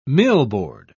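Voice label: "millboard", British English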